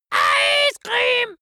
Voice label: dwarf scream ice cream german

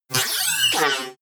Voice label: robot speech

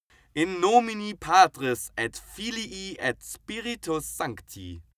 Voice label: male pray latin

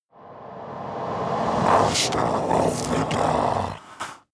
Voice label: demon dark voice reverse master of the dark

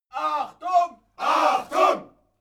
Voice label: military shout german attention

